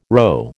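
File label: "rho", American English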